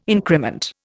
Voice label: "increment", Indian

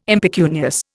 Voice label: "impecunious", Indian

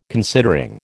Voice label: "considering", American

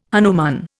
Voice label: "hanuman", Indian

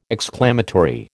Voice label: "exclamatory", American

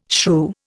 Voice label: "shrew", Indian

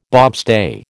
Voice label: "bobstay", American

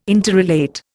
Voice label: "interrelate", Indian

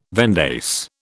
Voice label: "vendace", British

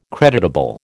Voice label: "creditable", American

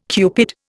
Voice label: "Cupid", Indian

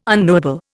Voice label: "unknowable", Indian

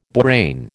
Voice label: "borane", American

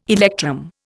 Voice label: "electrum", Indian

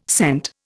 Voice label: "scent", Indian

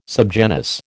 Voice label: "subgenus", American

